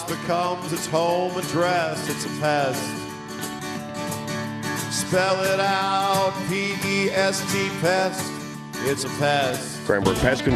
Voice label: radio spot insect